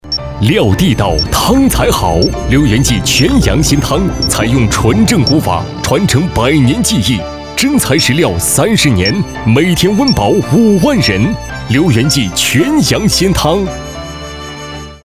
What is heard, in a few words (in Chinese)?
广告 食品饮料 全羊鲜汤, 男; 刘源记